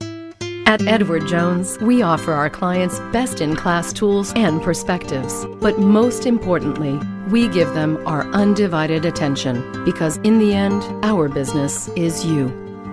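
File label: ENG Comm Soft Sell, English commercial soft sell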